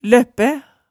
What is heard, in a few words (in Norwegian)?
"løppæ", hemsedalsmaalet tale